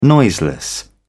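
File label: "noiseless", British English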